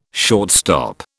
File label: "shortstop", British